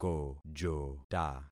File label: "kojota", concat syllables; Affixing experiment